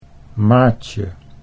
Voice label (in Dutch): "maatje", Dutch